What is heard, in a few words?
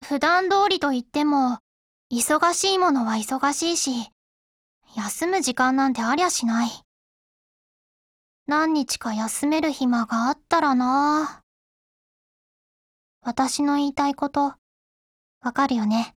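DIALOGUE WEDDING Japanese; 80typeMod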